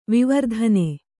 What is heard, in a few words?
"ವಿವರ್ಧನ", Kannada